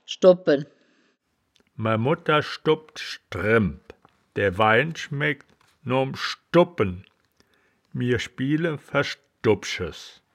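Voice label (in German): "schdob pen", wittlicher woerterbuch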